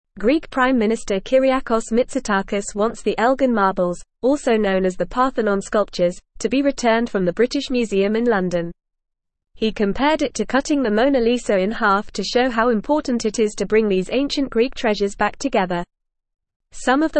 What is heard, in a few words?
English Newsroom Upper Intermediate FAST Reading; Greek Prime Minister Calls for Return of Elgin Marb